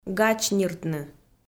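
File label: "гач ниртны", Komi, female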